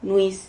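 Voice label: "nuis", Spanish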